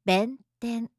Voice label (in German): "benten", japanische maedchennamen